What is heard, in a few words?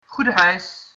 bon voyage, dutch